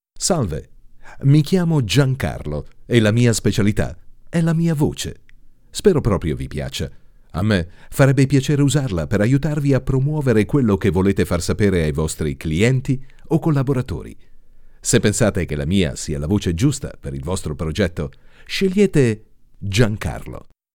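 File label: Italian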